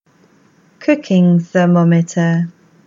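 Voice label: English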